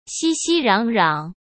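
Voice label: "熙熙攘攘", Mandarin Chinese; chinese-flashcards; hsk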